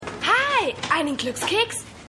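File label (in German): Mädchen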